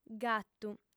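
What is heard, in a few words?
"gatu", female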